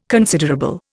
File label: "considerable", Indian